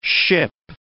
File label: "ship", English